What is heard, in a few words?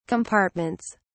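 "compartments", British English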